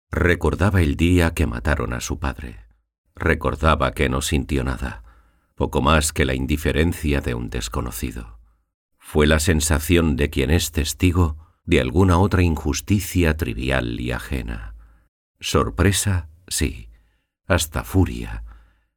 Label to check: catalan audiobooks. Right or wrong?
Right